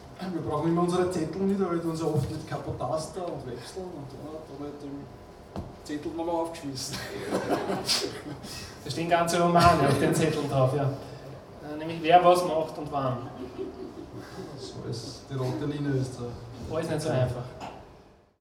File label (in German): ansage